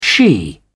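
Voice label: "she", British English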